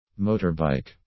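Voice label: "motorbike", English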